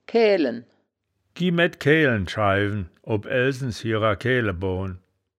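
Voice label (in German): "kaeaelen schaiwen", wittlicher woerterbuch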